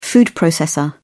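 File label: "food processor", British English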